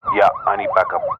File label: emergency police radio male officer in car sirens yes i need backup